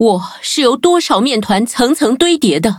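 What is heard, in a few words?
level up, Traditional Chinese; cookie run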